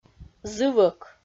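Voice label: "зывӧк", Komi, female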